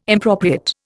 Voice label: "impropriate", Indian